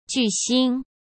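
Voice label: "巨星", Mandarin Chinese; chinese-flashcards; hsk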